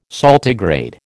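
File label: "saltigrade", American English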